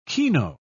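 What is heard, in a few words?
"keno", British English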